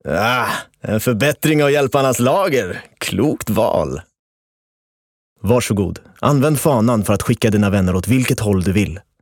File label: SE M char